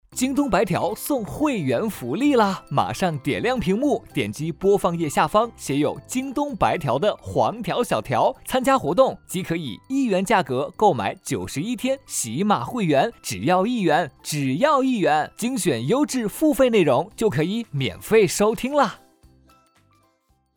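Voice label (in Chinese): 男【广告】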